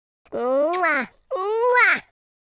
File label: happy, speech, Patronising